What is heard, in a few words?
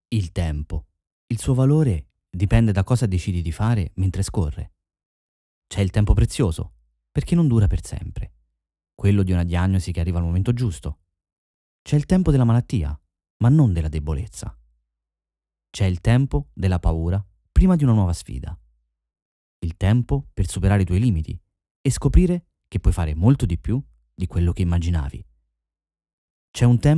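Italian, general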